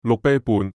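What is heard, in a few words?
"六啤半", Cantonese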